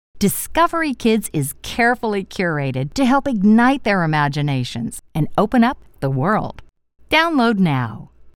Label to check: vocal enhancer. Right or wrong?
Wrong